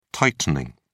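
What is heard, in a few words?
"tightening", British English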